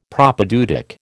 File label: "Propaedeutic", American